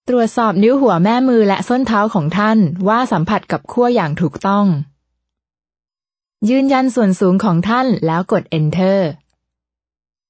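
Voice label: Thai, female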